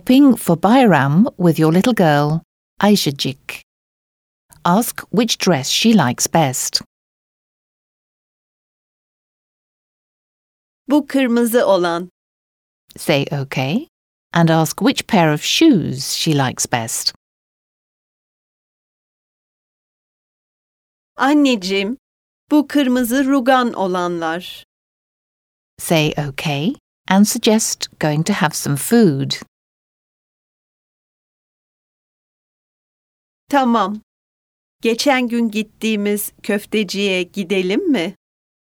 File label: Speaking, Turkish